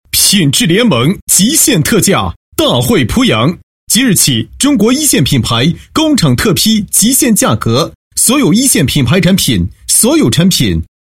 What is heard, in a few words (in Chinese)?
男 促销广告, 极限特价; 大惠濮阳